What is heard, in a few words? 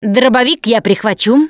take shotgun, russian, Survivor Voice Producer